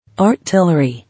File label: "Artillery", English